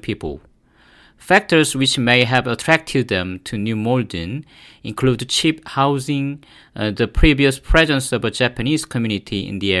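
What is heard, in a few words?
Korean, English Accent; GoLocalise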